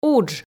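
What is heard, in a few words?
"удж", Komi, female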